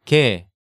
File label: "Gae", Hangeul sounds; DigitalSoju